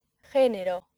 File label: "genero", accent; laboratori fonetica; practiques accent